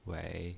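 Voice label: "wei", pinyin voice, third tone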